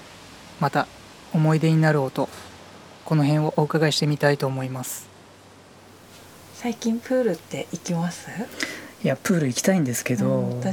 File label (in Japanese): ASMR ナレーション入り